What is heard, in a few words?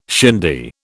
"shindy", British